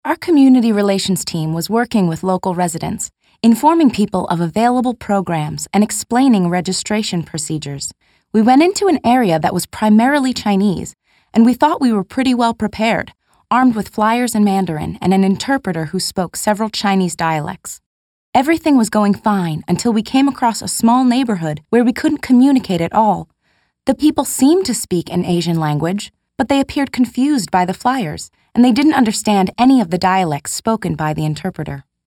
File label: 20s Female Narration